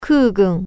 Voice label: airforce, japanese

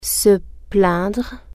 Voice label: coplain, french

